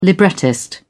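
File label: "librettist", British English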